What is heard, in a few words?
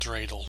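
"dreidel", US English; pronunciations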